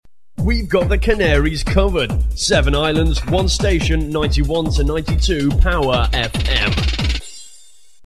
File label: male; canaries coverd; 7 islands; jingles